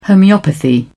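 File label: "homeopathy", British English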